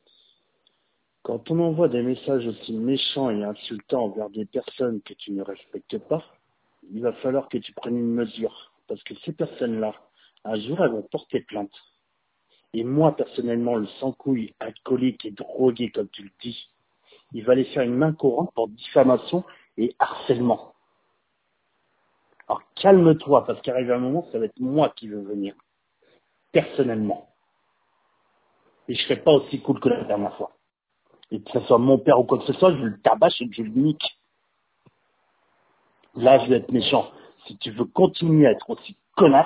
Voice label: voicemail